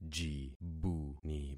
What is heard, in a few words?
"jibuni", concat syllables; Affixing experiment